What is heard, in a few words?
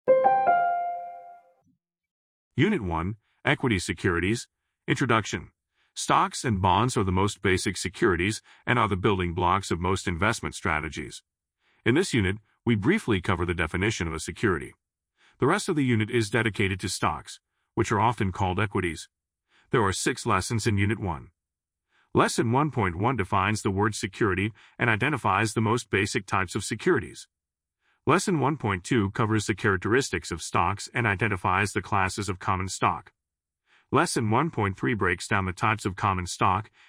audiobook sample